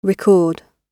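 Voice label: "record" British English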